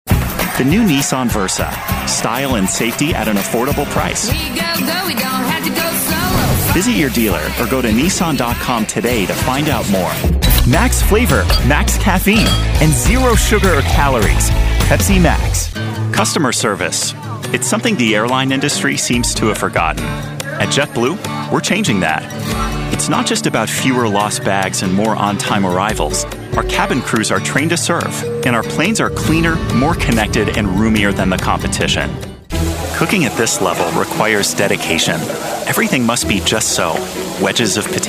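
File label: Commercial, real people male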